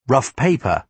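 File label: "rough paper", British English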